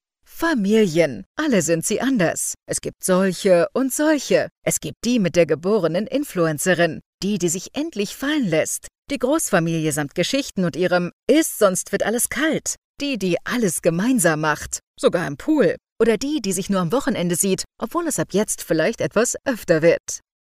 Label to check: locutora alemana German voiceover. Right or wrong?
Right